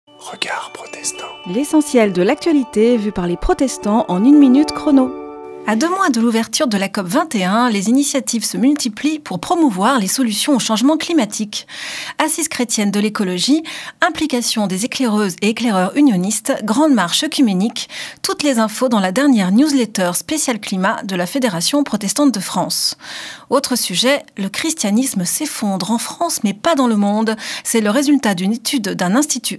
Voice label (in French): Spot radio; Regards protestants